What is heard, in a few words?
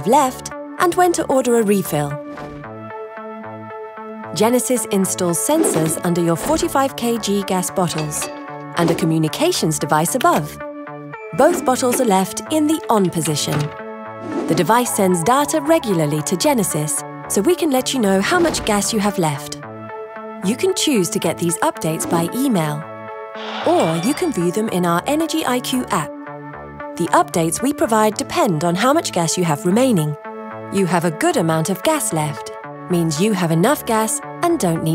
Bottled Gas Monitoring E learning; en-GB; Genesis